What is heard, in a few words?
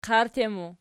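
"qart" ergative, Archi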